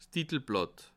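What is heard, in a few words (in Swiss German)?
"titelblatt", Bas Rhin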